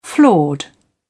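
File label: "flawed", British English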